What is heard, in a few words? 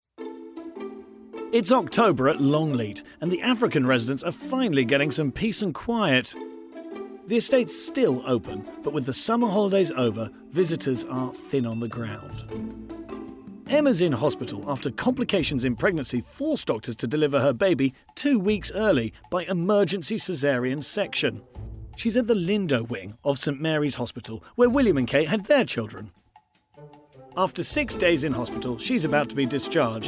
Narration